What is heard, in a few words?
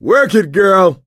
nice shot female, player survivor voice; source engine; left 4 dead 2